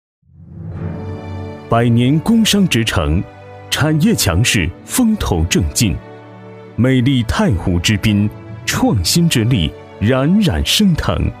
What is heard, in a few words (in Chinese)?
男-专题; 百年工商